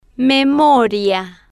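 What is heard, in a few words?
"memoria", slow, spanish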